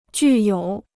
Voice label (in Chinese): "具有", Chinese